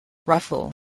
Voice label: "ruffle", voice spelling